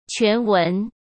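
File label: "全文", Mandarin; chinese flashcards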